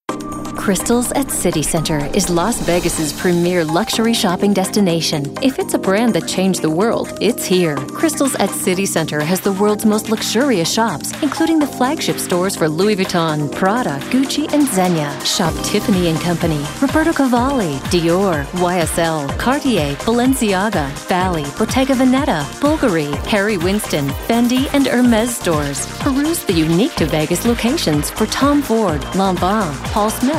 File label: sophisticated